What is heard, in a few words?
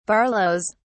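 "barlows", British English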